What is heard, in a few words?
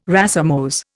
"racemose", Indian